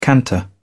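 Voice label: "canter", British English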